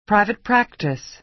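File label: "private practice", American English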